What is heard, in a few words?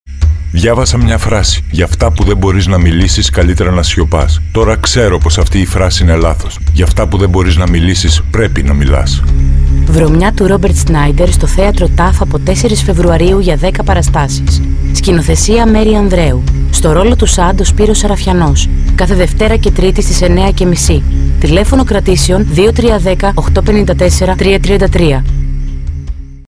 radio spot; ΒΡΩΜΙΑ